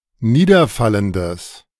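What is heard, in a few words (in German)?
"niederfallendes", German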